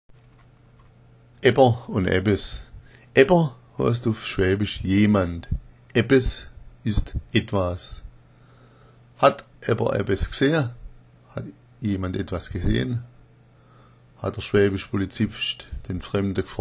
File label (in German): ebber, schwaebisch